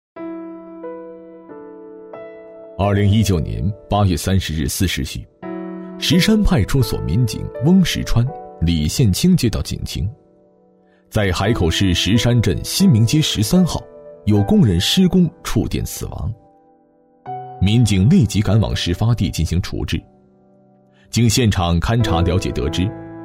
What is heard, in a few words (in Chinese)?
人物宣传配音; 石山派出所故事短片; 一个星期一面锦旗